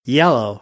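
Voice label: "yellow", American English; AmericanBritish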